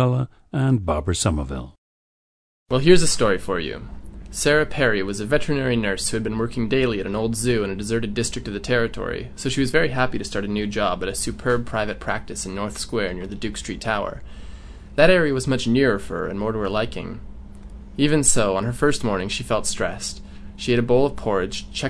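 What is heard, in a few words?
manitoba, International Dialects of English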